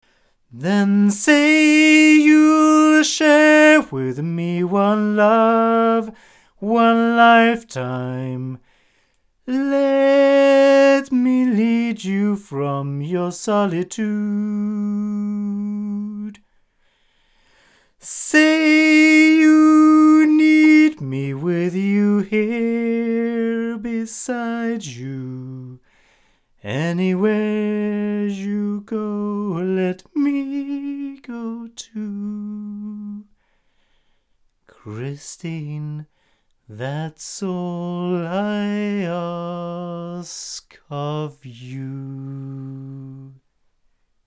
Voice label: Behringer ECM8000 male, microphones recordings

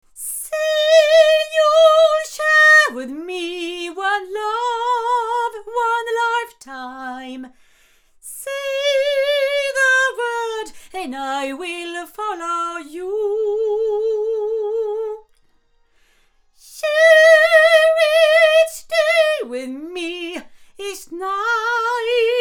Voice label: Blue Reactor omni female

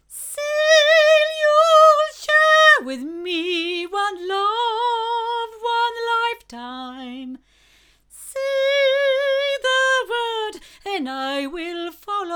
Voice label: female, microphones; Lauten Oceanus

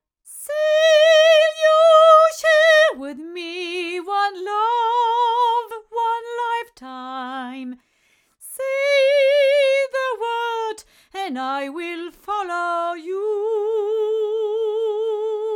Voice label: Neumann TLM170 cardioid female

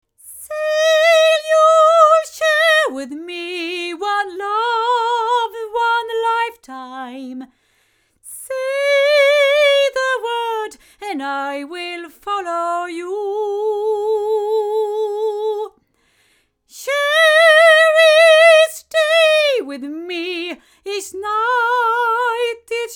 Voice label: sE 2A hypercardioid female; se electronics

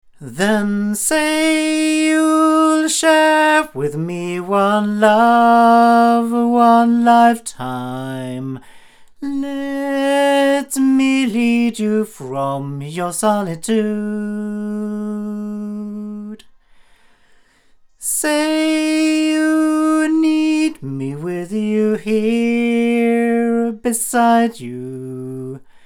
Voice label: BV-1 omni male; Avant; Avantone

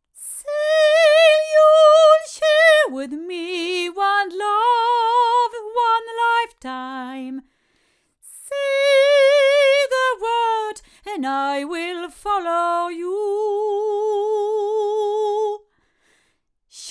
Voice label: female, microphones, Milab VM-44

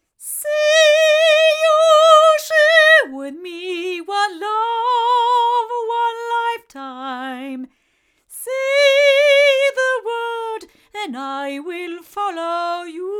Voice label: ADK A51S Type3 female

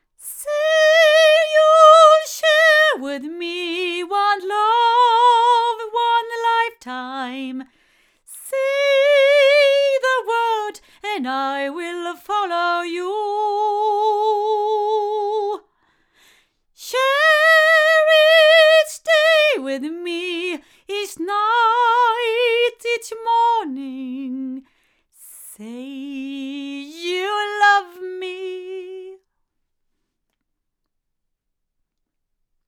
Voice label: SKEC010B female; JJLabs

